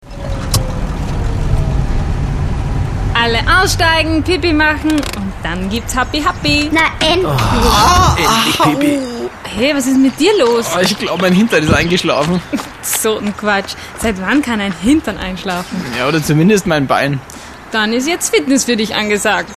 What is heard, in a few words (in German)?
sprechszene